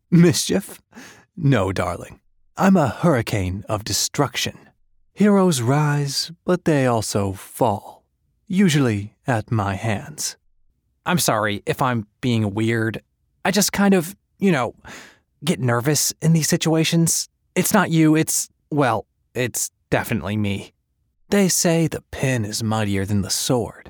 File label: Character Voice